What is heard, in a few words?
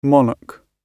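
"monarch", British English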